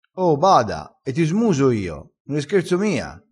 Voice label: "smusa", crespinese

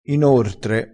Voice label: "inortre", crespinese

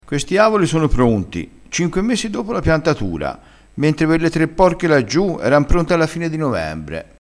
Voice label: "porca", crespinese

